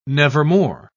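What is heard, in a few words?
"nevermore", British English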